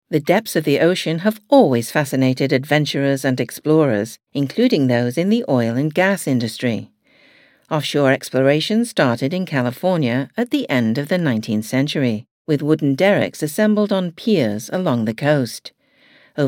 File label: Training Video